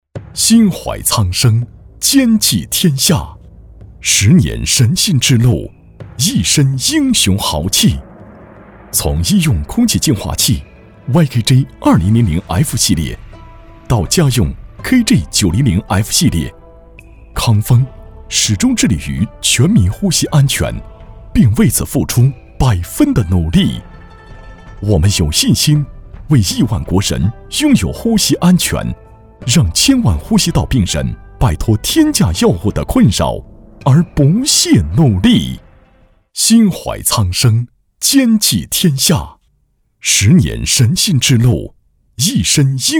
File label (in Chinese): 大气企业专题; 康风集团